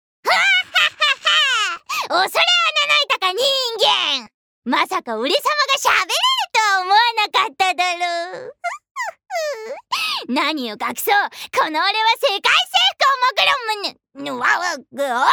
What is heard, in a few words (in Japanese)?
アニメ